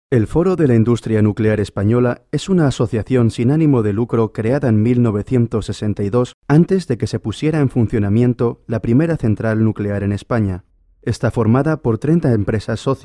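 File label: Castilian, foreign accent voice overs; foreign languages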